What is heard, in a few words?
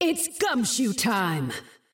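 English; 索杰恩（守望先锋2）; 终极技能; 赛博侦探皮肤语音; It's gum-shoe time!; 探案时刻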